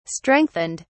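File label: "strengthened", English, British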